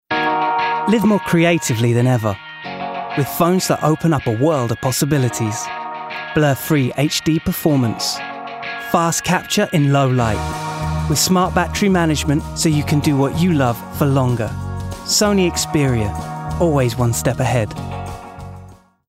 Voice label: Commercial; Sony Xperia

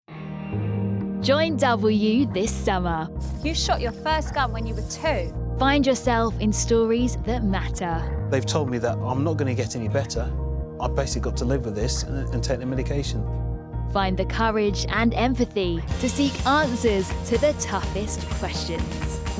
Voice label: Promo; W

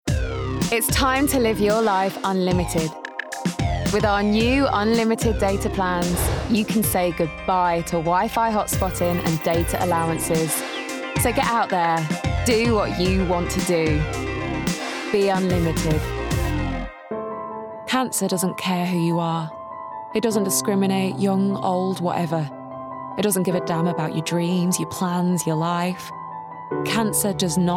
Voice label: Commercials

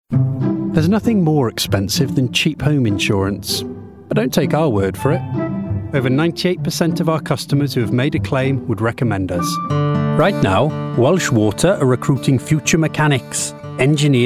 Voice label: Commercial Voicereel

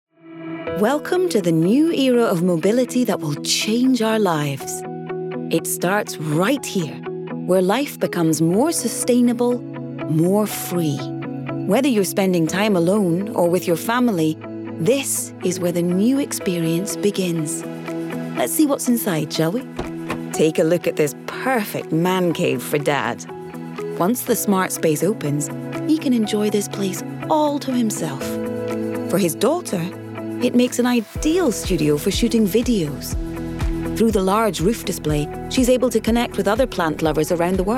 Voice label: Corporate; Hyundai

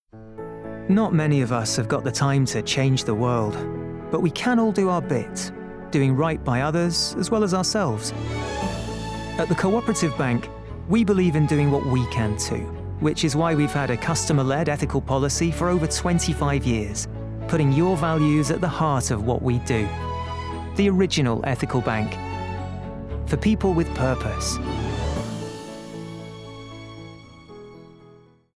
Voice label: Neutral; CoOperative Bank

